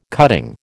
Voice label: "cutting", American